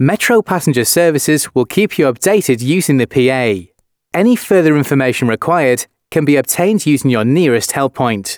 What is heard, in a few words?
announcements male